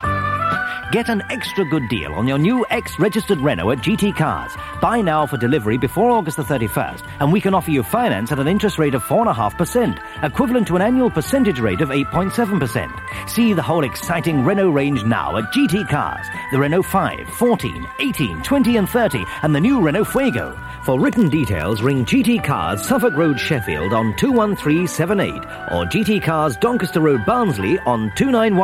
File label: Radio Hallam Advert; GT Cars; X Reg Renault